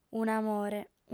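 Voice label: "un'amore", Sardinian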